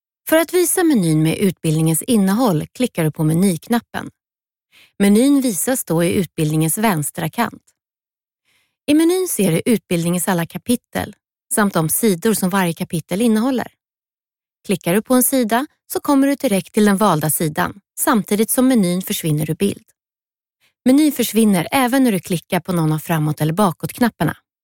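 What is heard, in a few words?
Swedish female info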